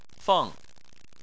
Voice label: "feng4", Chinese

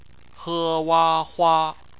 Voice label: "hua", Chinese; phonetics; bpmf

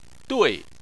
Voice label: "dui4", Chinese

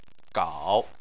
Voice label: "gao3", Chinese

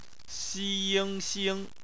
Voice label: "xing", Chinese; phonetics

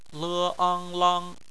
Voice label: "lang", Chinese; phonetics; bpmf

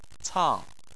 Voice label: "cang4", chinese

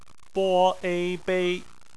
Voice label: bei, Chinese Phonetics

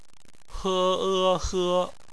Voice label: "he", Chinese; phonetics; bpmf

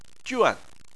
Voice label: "juan4", Chinese

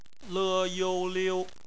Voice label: "liu", Chinese; phonetics

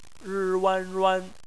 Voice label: "ruan", Chinese phonetics

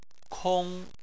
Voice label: "kong1", Chinese

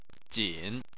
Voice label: "jin3", Chinese

